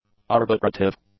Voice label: "arbitrative", English; adjectives